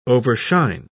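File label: "overshine", English, British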